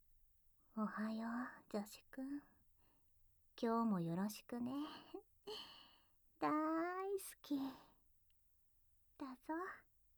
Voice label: Morning Voice, ASMR; おまけボイス; サキュバス病治療にご協力ください!～残念系年下上司は助手くんとエッチがしたい～